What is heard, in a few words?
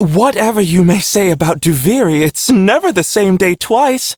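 Ambient Male Brisk, Dialog; Duviri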